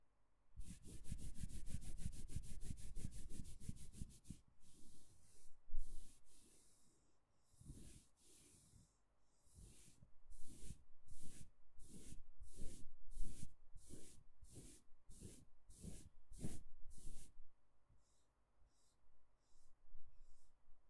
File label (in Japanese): マッサージ左, ASMR; 耳が気持ちいいASMR【マッサージ/耳かき/タッピング…】